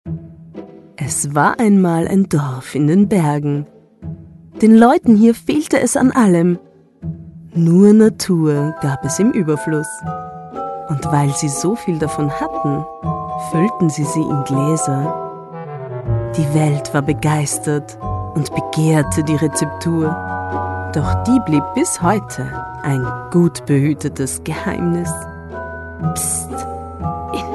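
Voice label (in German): Werbung; Darbo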